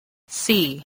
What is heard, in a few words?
"see", english spelling